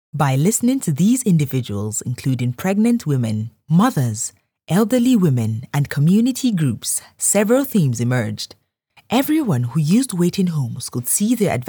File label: Narration